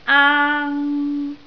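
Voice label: "aang1", Cantonese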